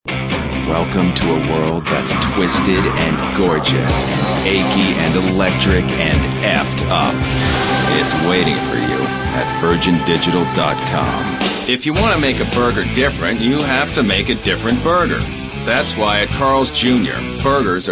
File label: male, mid 30s mid 40s, cool